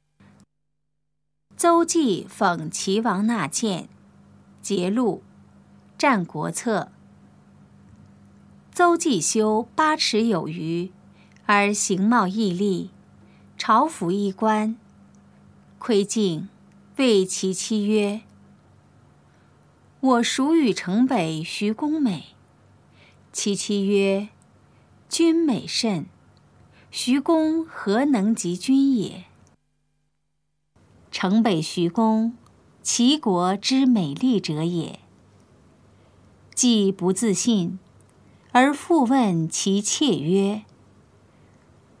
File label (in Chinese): putonghua; 普通話; 鄒忌諷齊王納諫（節錄）